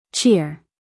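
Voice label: "cheer", American English, female; 1000 hours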